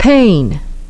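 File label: "pain", English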